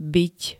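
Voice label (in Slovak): "byť", Slovak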